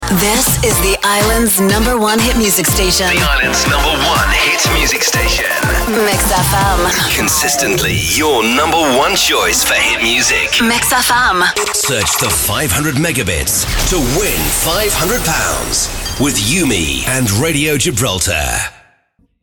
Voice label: radio imaging